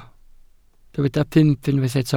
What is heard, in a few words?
"pump", Welsh